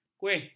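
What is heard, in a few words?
"kuih", Malay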